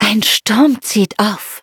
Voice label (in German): upgrade, de; Cookie Run